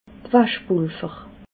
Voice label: "waschpulver", alsace

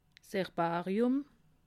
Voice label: "herbarium", alsace

